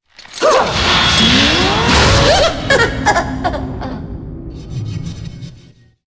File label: cinematic voice; lineage2